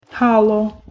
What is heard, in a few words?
"hallo", Proto-Germanic